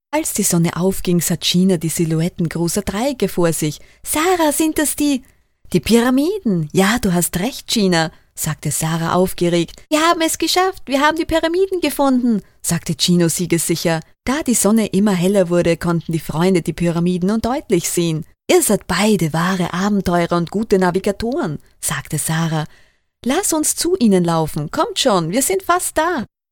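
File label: Energetic promotion German Female Voiceover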